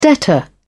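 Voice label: "debtor", English, British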